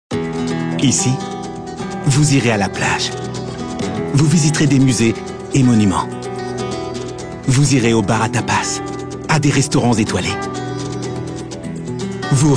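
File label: French, commercial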